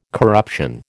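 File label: "corruption", American English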